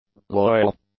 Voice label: "loyal", English; adjectives